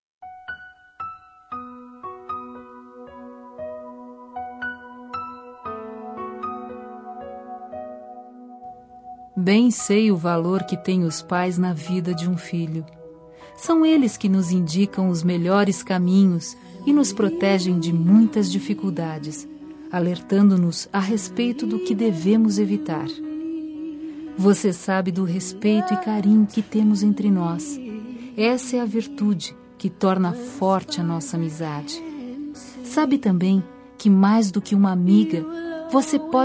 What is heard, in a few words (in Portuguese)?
Aniv. de Enteada o F